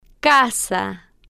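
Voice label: "ca-sa", Spanish, female, slow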